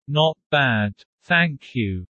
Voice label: low; Animal friends